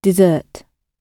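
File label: "dessert" British English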